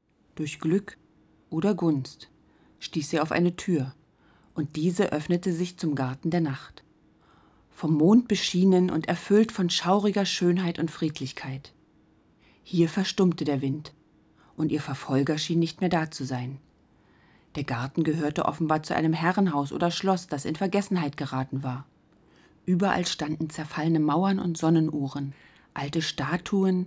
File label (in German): probe hörbuch